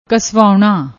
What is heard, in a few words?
"kswaunna", Punjabi